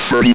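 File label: ROBVOX, robot voice; E-Lab; X Static Goldmine 1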